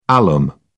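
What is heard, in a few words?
"alum", British English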